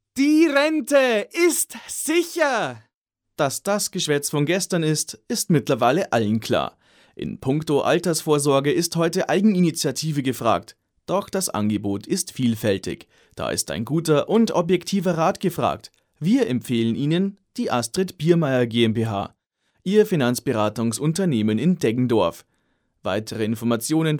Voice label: Werbung

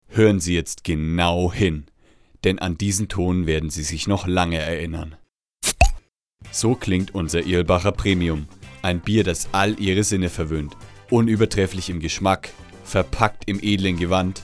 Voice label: Werbung; Irlbacher

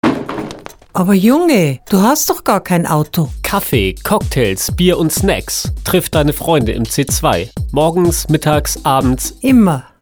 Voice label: Werbung Reminder

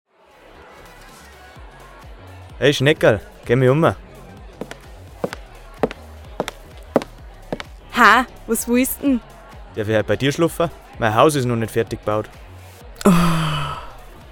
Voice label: Werbung; Gruell Bau

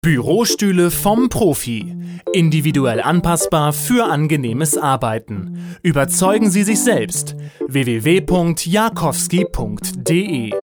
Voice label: Werbung Reminder

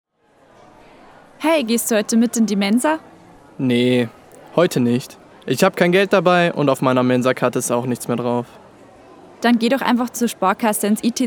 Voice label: Werbung Sparkasse

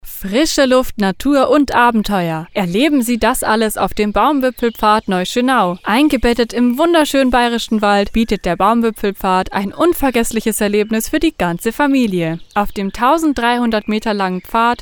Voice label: Werbung; Erlebnis Akademie

